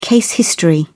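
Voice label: "case history", British English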